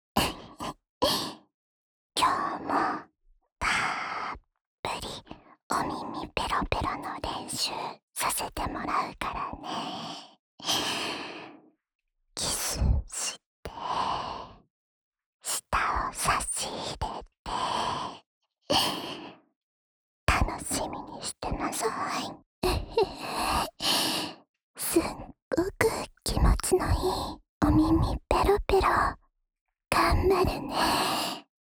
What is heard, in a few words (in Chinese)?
标题朗诵, ASMR